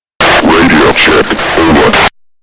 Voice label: radio check over